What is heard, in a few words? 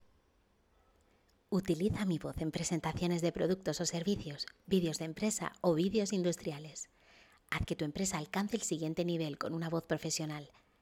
CORPORATE, Spanish, female